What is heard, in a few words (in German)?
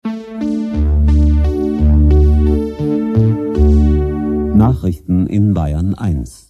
nachrichten, jingles